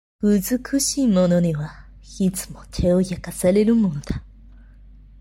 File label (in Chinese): 日文